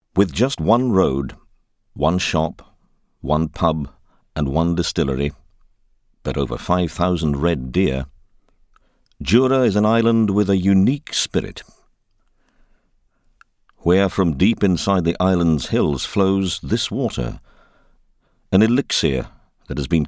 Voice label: Whiskey Documentary; Jura